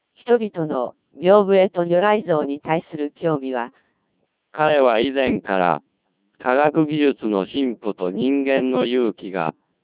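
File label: Japanese, speech, CODEC2 1600 bps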